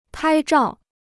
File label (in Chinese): "拍照", Chinese